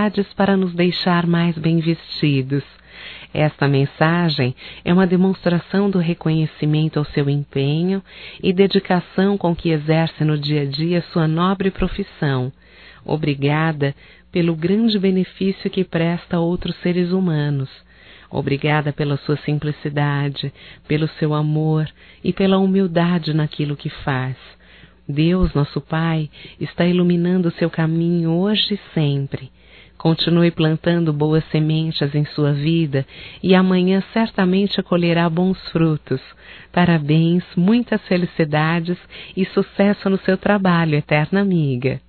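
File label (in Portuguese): Homenagem costureira, voz feminina; Telemensagens; HOMENAGENS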